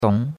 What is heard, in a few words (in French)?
"dong2", chinois; Cours de Chinois